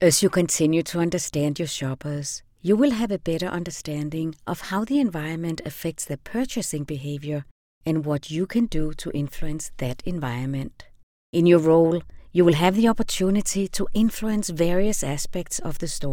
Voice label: Raw Sound English